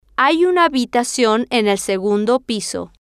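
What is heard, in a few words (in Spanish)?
hay Una Habitacion En El Segundo Piso, Spanish, female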